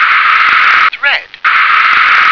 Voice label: "thread", English